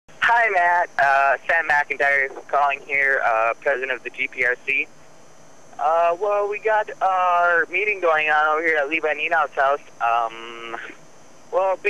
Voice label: Voicemails